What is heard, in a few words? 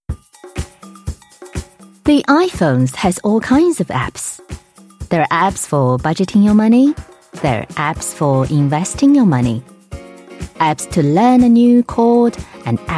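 Chinese English, female, commercial; voice over; accent of china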